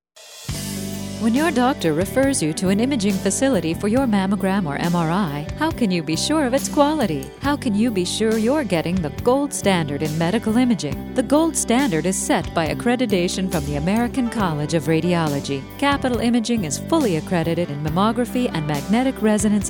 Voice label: Commercial